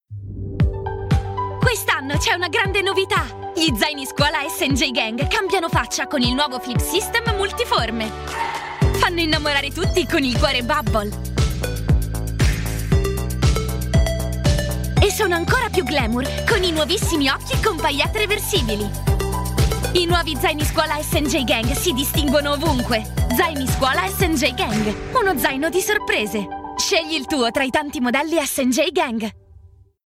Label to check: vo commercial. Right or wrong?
Wrong